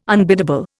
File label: "unbiddable", Indian